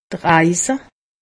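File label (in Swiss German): "eise", Alsatian; medecine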